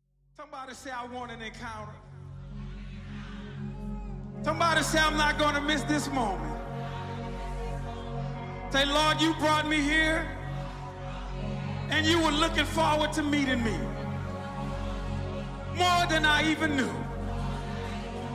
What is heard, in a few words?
Spoken Word